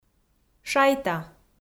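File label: "шайта", Komi, female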